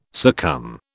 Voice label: "succumb", British